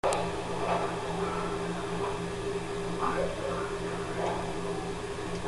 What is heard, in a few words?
whispering